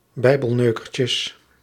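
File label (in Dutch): "bijbelneukertjes", Dutch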